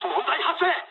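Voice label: aircraft damaged mood low, speech japanese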